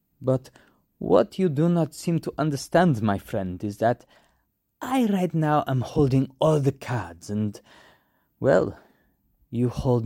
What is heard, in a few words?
Middle Eastern